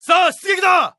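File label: Japanese Neutral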